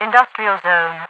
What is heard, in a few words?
industrial zone, radio voice; Half Life 2